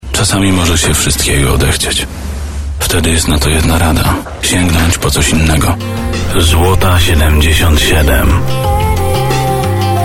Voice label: COMMERCIALS